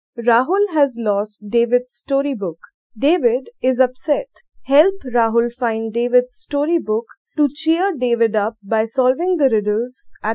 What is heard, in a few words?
dialog; Elevate LMS; EVS; SolveThis